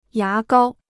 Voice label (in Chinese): "牙膏", Chinese